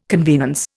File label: "convenance", Indian